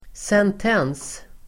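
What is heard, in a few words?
"sentens", Swedish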